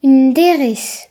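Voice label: "step", Breton; gcompris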